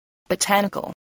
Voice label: "botanical", spelling